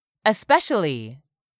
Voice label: "ESPECIALLY", english; 3000 words